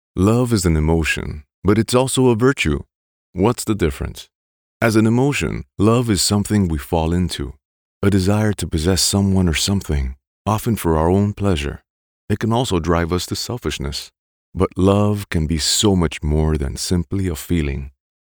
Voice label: Love an Emotion, ENG

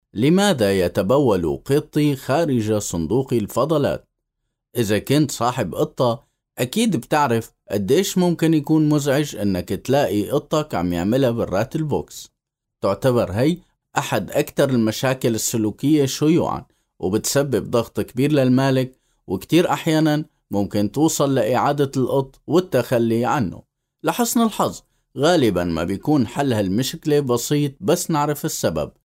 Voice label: Syrian Dialect Story

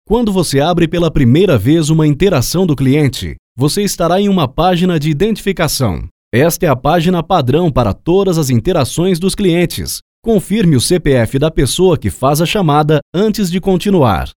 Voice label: E-Learning Multimedia PT-BR

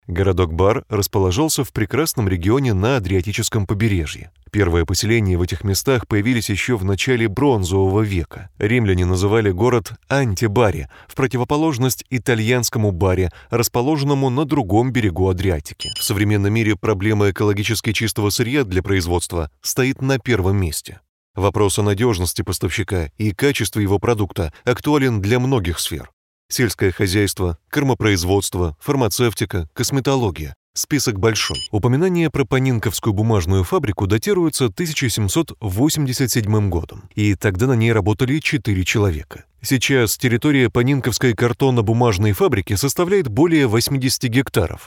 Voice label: Russian Narration

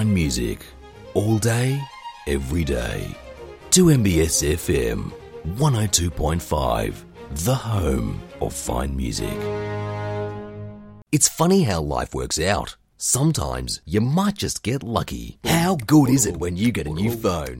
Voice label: Radio

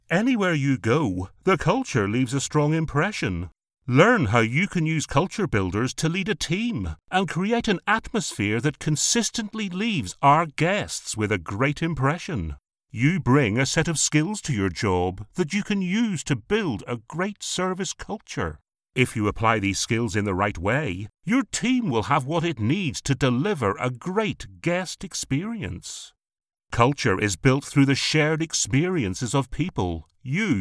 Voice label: Training eLearning Content

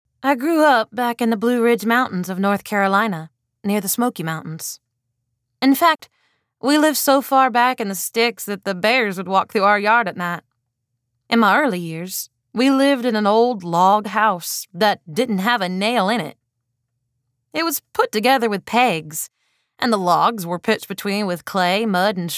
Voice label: autobiography 1st person southern accent

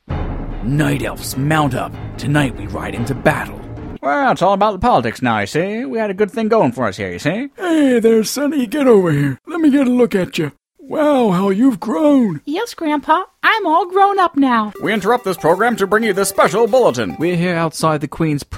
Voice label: Character

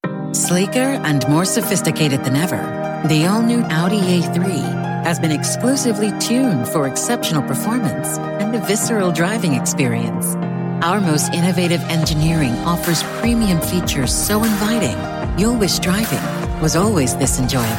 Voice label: Spot; AUDI

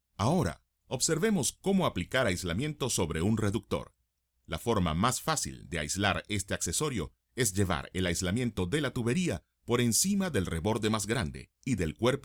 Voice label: Industrial Training Video

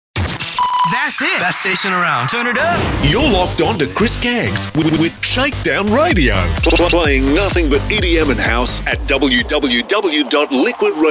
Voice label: RADIO ID; Thats It Turn It up Shakedown

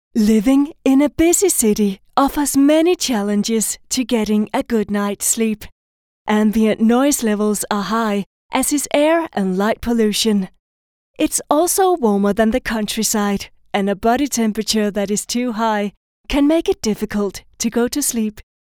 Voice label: Scandi English

